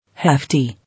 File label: "Hefty", English